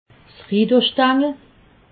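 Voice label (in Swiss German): "stangel", Alsatian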